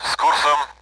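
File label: Speech, Russian, AWACS; target course; DCS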